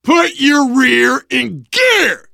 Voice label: hurry up, voice, biker; Left 4 Dead 2; survivor

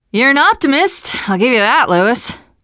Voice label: generic, teengirl, voice

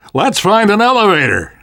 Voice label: world hospital, namvet, survivor voice

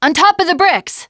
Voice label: generic, teengirl, voice

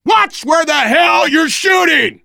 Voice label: friendly fire, voice, biker

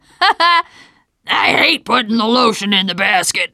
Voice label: second saferoom, teengirl, voice

